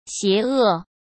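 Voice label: "邪恶", Mandarin Chinese; chinese flashcards; hsk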